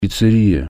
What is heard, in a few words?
"pizzeria", Russian